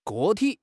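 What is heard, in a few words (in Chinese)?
"个体", Chinese; HSK6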